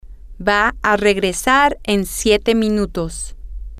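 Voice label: he she will return in seven minutes, spanish, female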